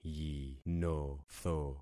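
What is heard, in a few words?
"yinotho", concatenated syllables; Affixing experiment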